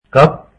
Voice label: hindi cup

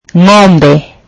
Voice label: swahili cow

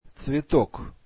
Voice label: russian flower

